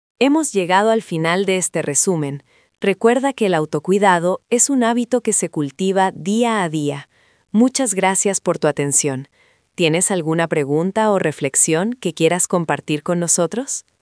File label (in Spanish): locucion